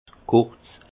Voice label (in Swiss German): "kurz", Alsace; vin; court